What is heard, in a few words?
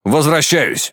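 Russian Speech Pilots Bomber Land; World Of Warships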